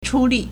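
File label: "chūlì", Chinese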